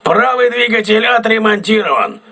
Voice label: voice message right engine repaired, crew ships russian